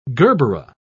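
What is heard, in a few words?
"gerbera", British English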